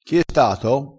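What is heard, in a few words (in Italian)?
"pinco", dialetto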